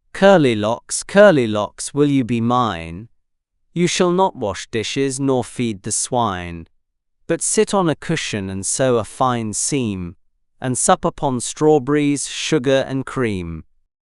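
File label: male British; Curly Locks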